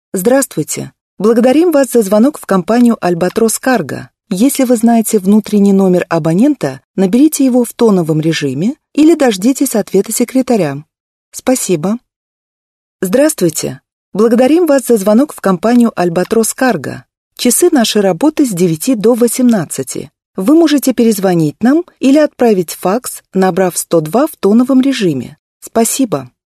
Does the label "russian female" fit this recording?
Yes